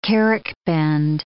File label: "carrick bend", English